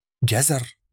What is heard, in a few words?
carrot in arabic